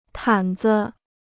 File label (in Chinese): "毯子", Chinese